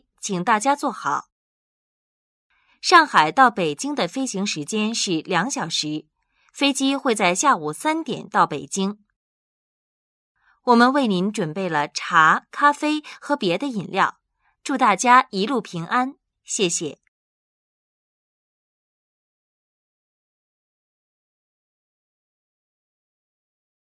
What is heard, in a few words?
narrative